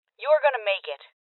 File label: Voices Heal Female; Rebel Cops